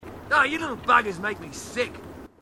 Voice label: marine, aussie; h2dialogue